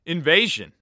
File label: Announcer Game Mode Invasion; Paladins